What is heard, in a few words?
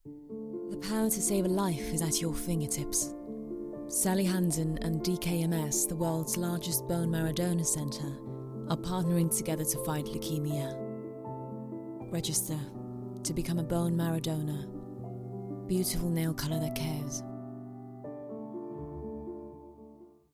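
British English Commercial; GoLocalise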